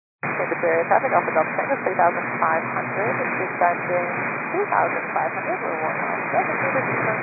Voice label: 122 925MHz, scanner radio